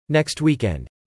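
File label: "Next weekend", English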